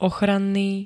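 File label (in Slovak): "ochranný", Slovak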